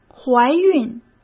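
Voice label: "怀孕", Mandarin Chinese; chinese-flashcards; hsk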